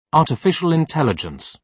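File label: "artificial intelligence", British English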